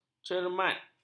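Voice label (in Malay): "cermat", Malay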